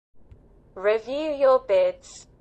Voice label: bids british